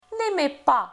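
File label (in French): "N'aimer pas", French; Préférences; Lingvo; Leçon5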